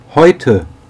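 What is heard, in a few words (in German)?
"heute", German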